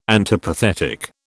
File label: "antipathetic", British